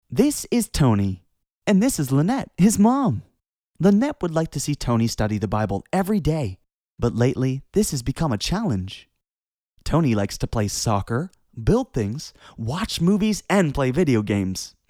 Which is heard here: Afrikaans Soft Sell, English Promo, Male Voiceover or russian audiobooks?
Male Voiceover